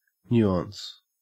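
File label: "nuance", Australian English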